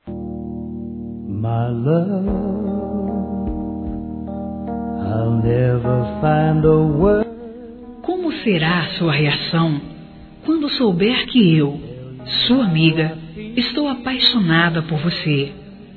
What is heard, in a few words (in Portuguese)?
Amizade que virou Paixão Feminino; Telemensagens; Românticas